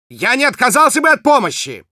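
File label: Spy help me, russian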